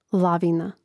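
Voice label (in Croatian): "lavina" Croatian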